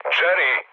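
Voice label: Serbian enemy detected mood med; War Thunder; speech srb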